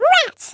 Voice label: "Bummer", American; Speech; Worms Armageddon